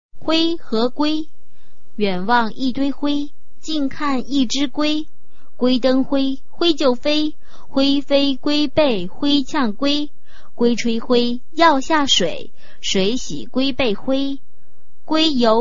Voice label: chinese tongue twister